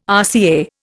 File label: "RCA", Indian